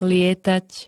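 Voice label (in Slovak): "lietať", Slovak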